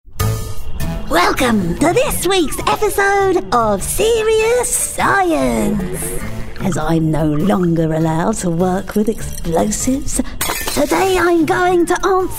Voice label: animation character

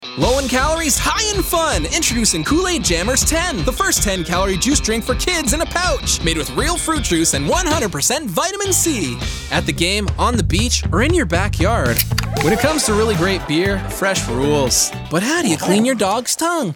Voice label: commercial; TaDa

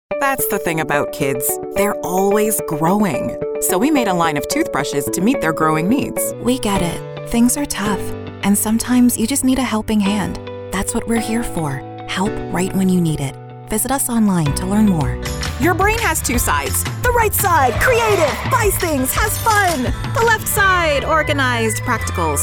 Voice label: commercial; TaDa

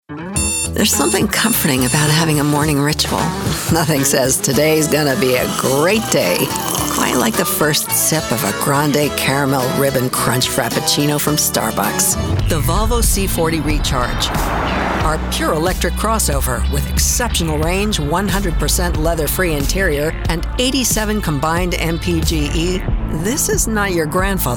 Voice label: Commercial; TaDa

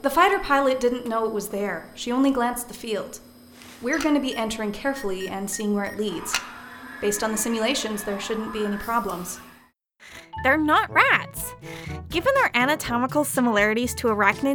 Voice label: character; TaDa

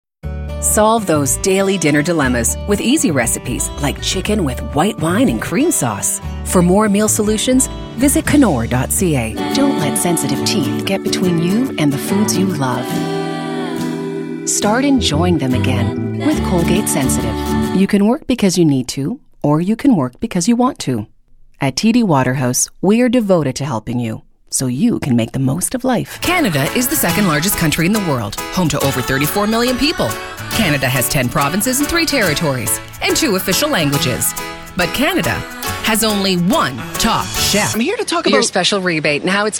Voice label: Commercial; TaDa

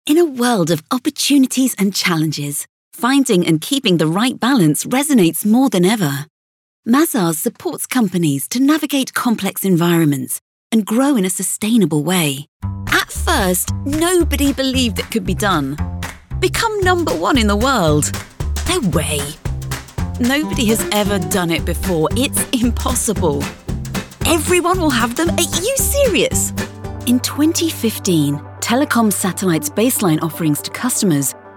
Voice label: corporate

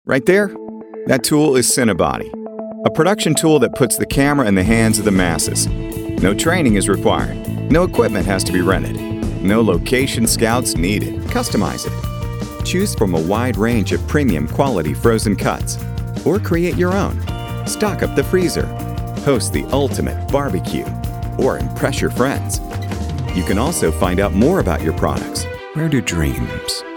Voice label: narration; TaDa

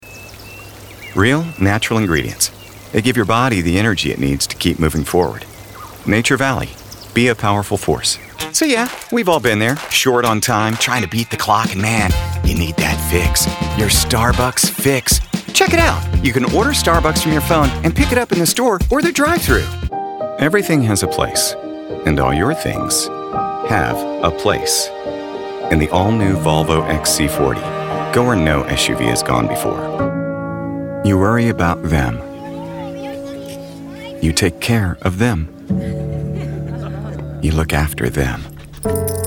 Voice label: commercial; TaDa

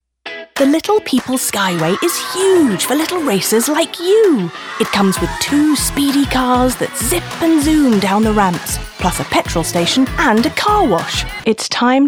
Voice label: British Commercial; TaDa

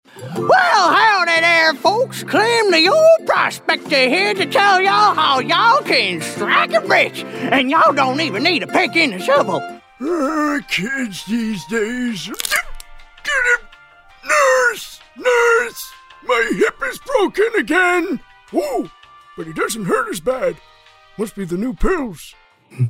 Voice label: character; TaDa

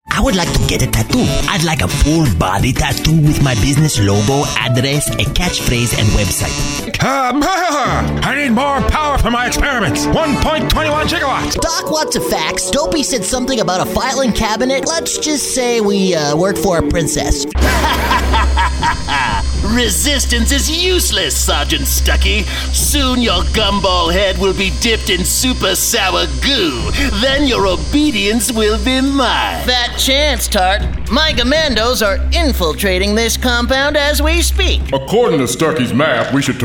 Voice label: Character; TaDa

